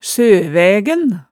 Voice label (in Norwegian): "søvægæn", hemsedalsmaalet tale